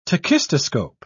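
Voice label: "tachistoscope", British English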